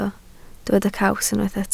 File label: "caws", Welsh